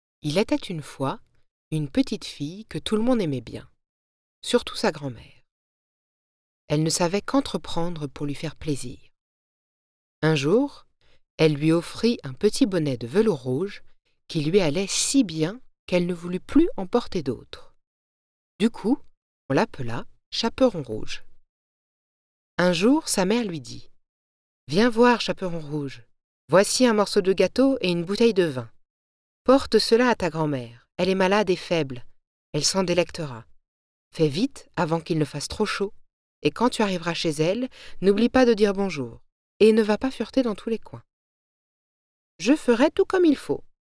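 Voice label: French Narration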